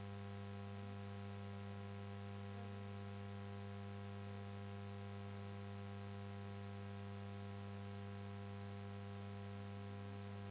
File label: announce; sunday message 2024